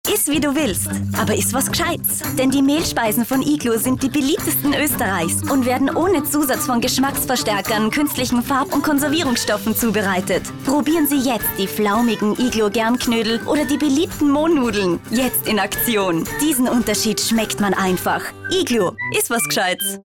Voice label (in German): Frau OFF